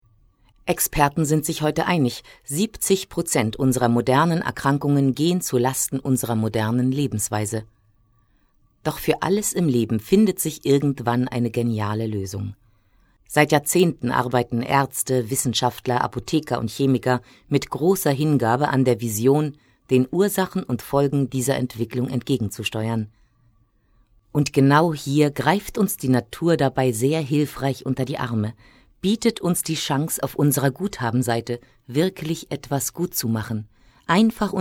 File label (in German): werbung gesundheit